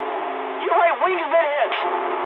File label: eng right wing mood high, speech eng; War Thunder